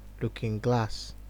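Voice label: "lukinglass", Nigerian Pidgin, male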